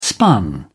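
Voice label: "spun", English, British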